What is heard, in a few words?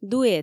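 "дуэт", Komi, female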